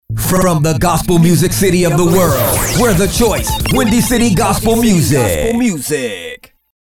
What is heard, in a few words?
From The Gospel Music City, Gospel Dj Drops